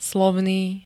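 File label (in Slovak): "slovný", Slovak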